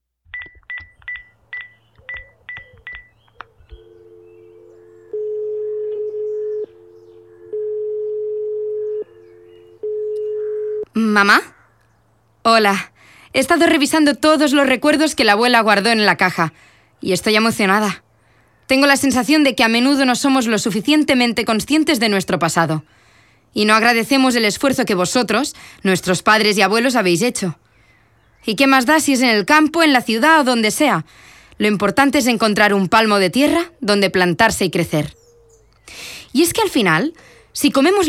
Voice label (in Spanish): audioguia